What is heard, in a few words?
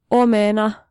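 Finnish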